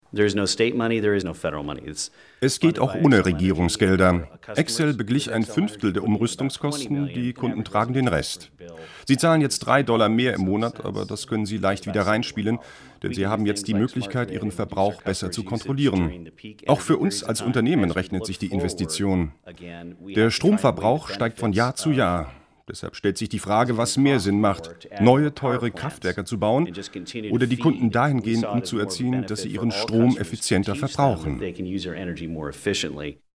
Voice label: voice over

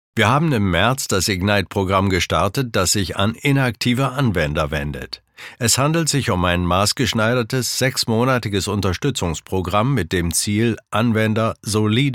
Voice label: corporate training german

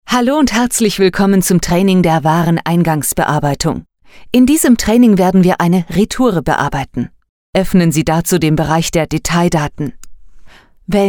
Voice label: e-learning

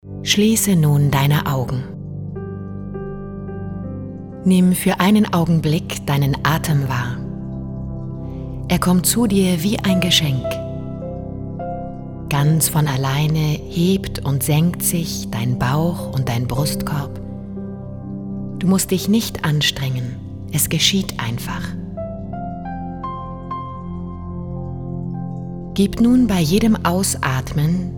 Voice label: meditation

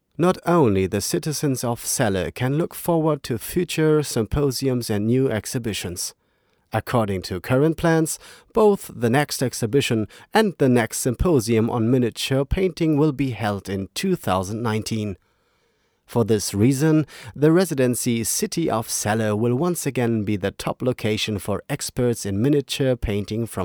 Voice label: english

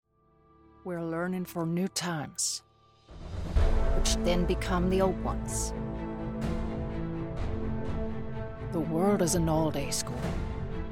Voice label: image english; quofox

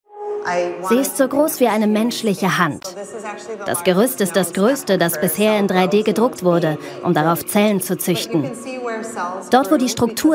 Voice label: voiceover; arte tracks; amy karle

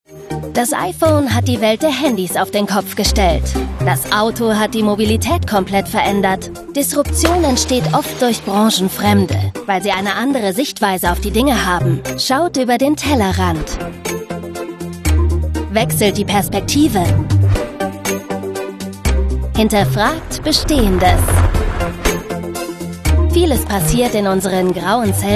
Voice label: voiceover; toyota

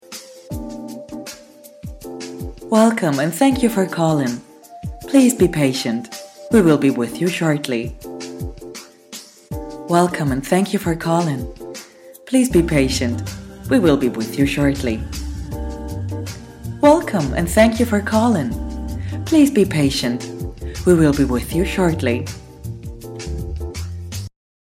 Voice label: english tel

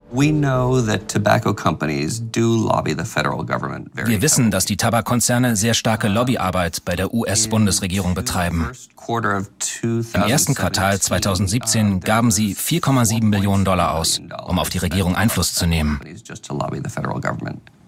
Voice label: voiceover

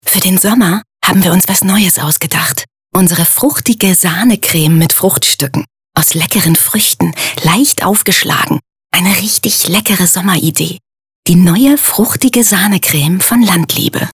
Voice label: commercial; landliebe; Muttersprache Deutsch

